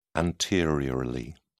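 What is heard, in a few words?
"anteriorly", British English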